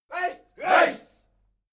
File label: military shout german right